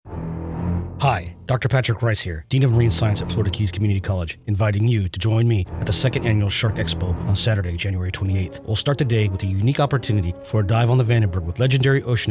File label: COMMERCIAL; SHARK EXPO 2012